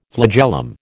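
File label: "flagellum", American English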